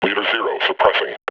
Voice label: combine announce; combine soldier